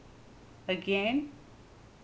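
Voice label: "again", Saint Vincent, female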